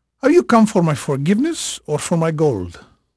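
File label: Spanish male, voice; Pirates Of The Caribbean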